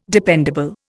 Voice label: "dependable", Indian

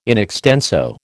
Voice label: "in extenso", American

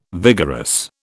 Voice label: "vigorous", British

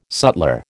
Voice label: "sutler", American

